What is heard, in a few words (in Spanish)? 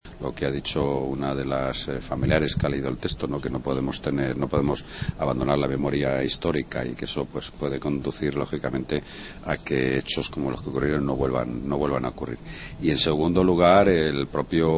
noticias